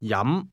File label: "drink", cantonese